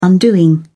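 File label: "undoing", British English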